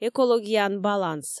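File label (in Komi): "экологияын баланс", Komi, female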